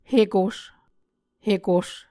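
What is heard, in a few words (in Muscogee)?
"hekos", Muscogee word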